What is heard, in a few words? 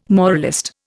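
"moralist", Indian English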